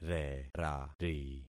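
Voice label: "rerari", concatenated syllables; Affixing experiment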